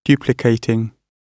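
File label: "duplicating", British English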